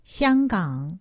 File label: "xianggang", Chinese; Survival Chinese